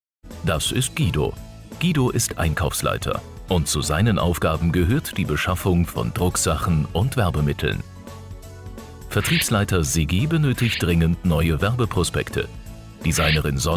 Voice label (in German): Erklaerfilm